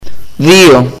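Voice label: "Two", Greek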